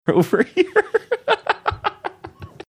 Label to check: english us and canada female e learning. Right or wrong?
Wrong